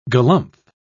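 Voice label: "galumph", British English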